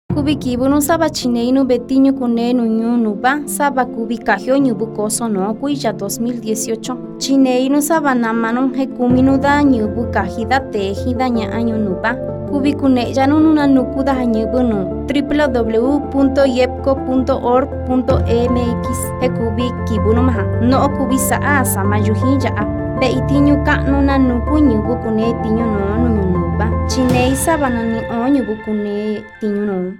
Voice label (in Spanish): Spot legua mixteco